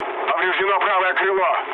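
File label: right wing, mood low, Russian; War Thunder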